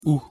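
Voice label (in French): "ou", French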